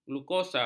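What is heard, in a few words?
"glukosa", Malay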